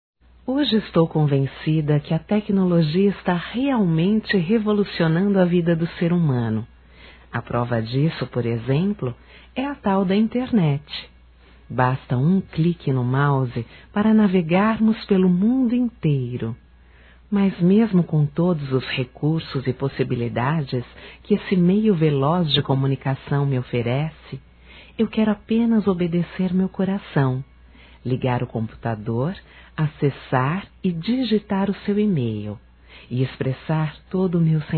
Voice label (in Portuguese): Paquera Virtual Feminino; Telemensagens 2017